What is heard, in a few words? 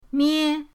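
"mie1", Chinese; pinyindct-open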